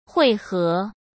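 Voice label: "汇合", Mandarin Chinese; hsk; chinese-flashcards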